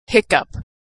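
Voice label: "hiccough", American English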